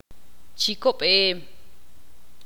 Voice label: "planta", Ixil

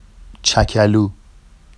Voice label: “ʧacalu”, Vafsi; n bird droppings; چَکـَلو

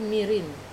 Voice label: "marin", calm, Wamesa

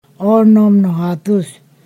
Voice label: "six hundred", Ponosakan

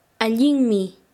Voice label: Chanka, quechua; correcto

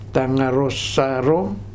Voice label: "Tagarosaro", futuna aniwa

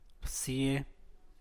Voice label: "bsie", San Mateo Macuilxochitl Zapotec; well; pozo

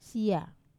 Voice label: "sia" they, Wamesa

